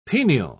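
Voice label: "penial", English, British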